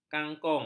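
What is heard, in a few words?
"kangkung", Malay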